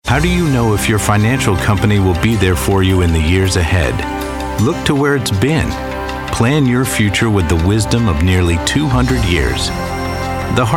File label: commercials